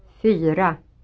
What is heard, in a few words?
"fyra", Swedish